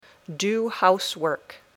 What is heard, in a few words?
"do housework", English